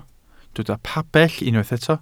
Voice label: "pabell", Welsh